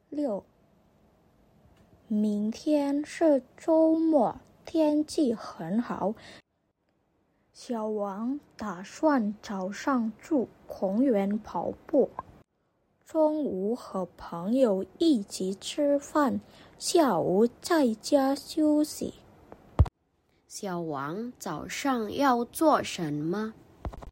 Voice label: Mandarin; mandari placement test hsk3